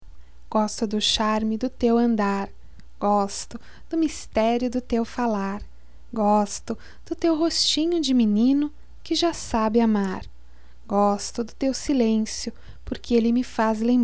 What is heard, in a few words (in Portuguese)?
Versinho de amor, fem; Telemensagens 2017